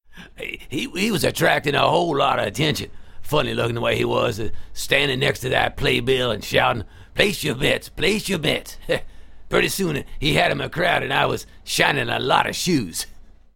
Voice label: old black man; Dodge "Drive Into Summer"